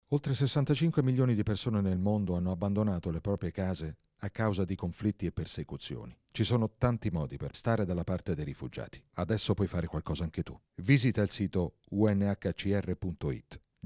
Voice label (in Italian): Spot radio